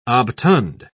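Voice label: "obtund", British English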